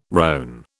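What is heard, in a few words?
"rone", British English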